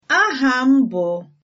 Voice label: my name is, igbo

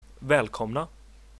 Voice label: welcome, swedish; Välkomna

